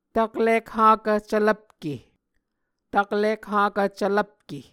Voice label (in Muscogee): "taklik hakv cvlvpke", Muscogee